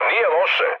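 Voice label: Serbian trick mood med; speech; War Thunder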